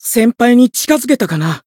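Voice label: Voice growth, japanese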